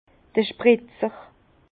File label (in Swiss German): "spritzer", Bas Rhin; vin; pulvérisateur